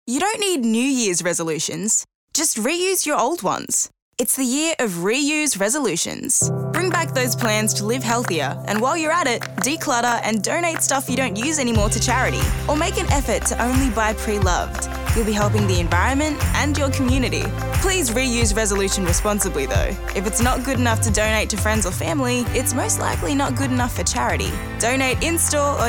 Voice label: Radio Ad; NACRO Vic